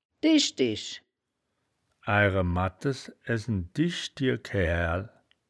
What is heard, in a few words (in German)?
"dischdisch", woerterbuch, Wittlich